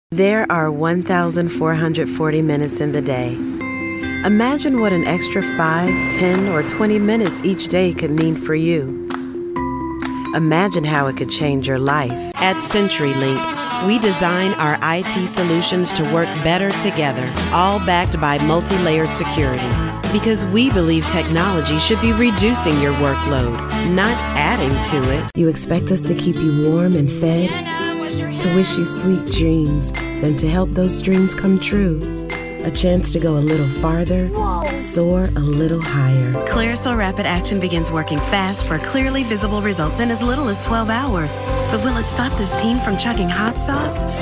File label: Commercial Real Relatable Reads